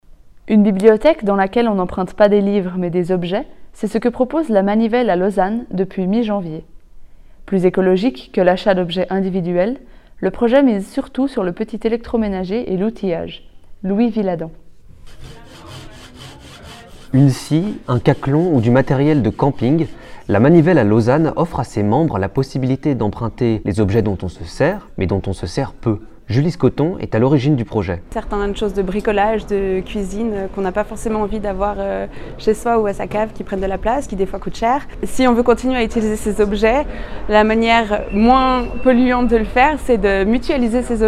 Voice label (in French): sujet actu radio; viladent